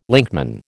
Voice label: "linkman", American